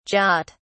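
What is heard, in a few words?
"jat", British English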